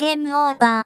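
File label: "lose", voice; Techmino; rin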